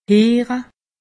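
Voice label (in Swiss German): "heere", Alsatian; medecine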